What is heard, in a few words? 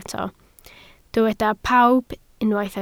"pawb", Welsh